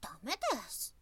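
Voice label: "damedesu", Japanese, woman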